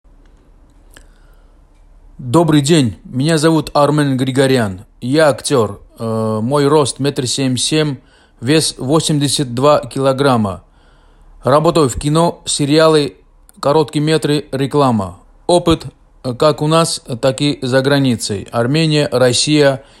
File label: russian